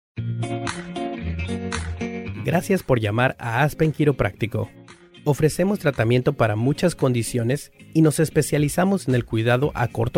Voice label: Medical Spanish